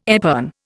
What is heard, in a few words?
"epergne", Indian